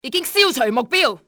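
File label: target eliminate, cantonese female; XBOX; enemy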